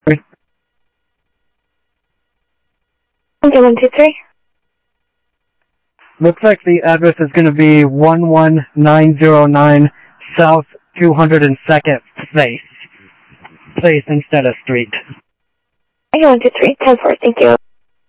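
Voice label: Sheriff East County, scanner audio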